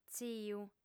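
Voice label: "tziu", Sardinian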